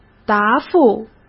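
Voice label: "答复", Mandarin Chinese; hsk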